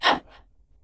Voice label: Fel Elf Caster Female Pre Aggro

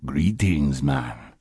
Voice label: Troll Male Greeting

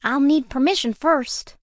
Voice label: Gnome Female err guild permissions

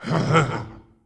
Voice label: Fel Elf Caster Male Pre Aggro

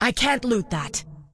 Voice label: Undead Female err cant loot; Scourge

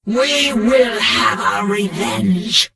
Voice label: Death Knight Female Low Farewell

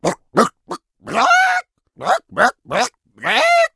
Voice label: Troll Male Chicken

